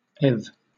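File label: "eth", British English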